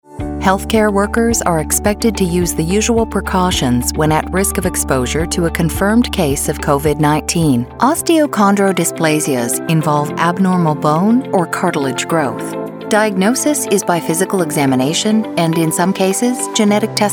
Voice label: English Female